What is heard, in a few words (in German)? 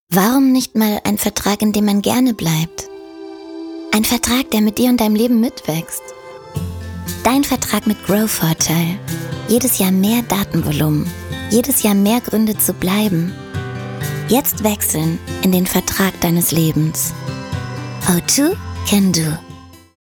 Sprecherin, Werbung; Demo; Grow-Vorteil